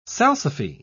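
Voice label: "salsify", British English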